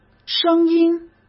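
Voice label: "声音" Mandarin Chinese; chinese flashcards; hsk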